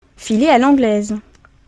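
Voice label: "filer a l anglaise", French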